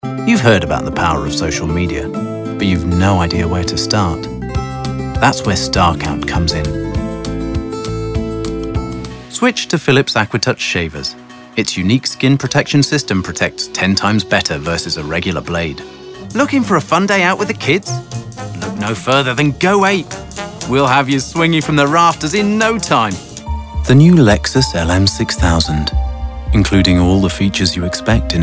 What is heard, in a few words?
languages british